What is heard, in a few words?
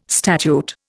"statute", Indian